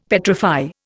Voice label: "petrify", Indian; Dictionary

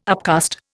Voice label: "upcast", Indian

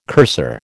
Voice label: "cursor", American

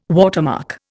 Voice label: "watermark", Indian

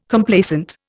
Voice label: "Complacent", Indian

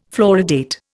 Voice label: "fluoridate", Indian

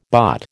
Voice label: "bott", American

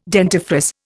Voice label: "dentifrice", Indian

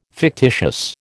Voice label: "fictitious", American

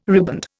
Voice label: "riband", Indian

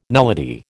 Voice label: "nullity", American

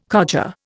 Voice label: "codger", Indian

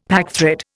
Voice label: "packthread", Indian; Dictionary

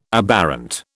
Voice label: "aberrant", British

